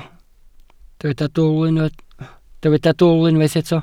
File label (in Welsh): "dwl", Welsh